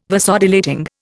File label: "Vasodilating", Indian